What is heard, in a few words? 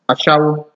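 "ashawo", Nigerian Pidgin, male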